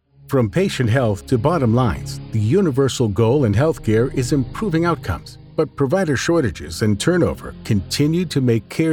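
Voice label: e-learning with music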